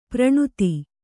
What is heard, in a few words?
"ಪ್ರಣುತಿ", Kannada